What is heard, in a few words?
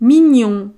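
"mignon", French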